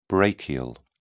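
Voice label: "brachial", English, British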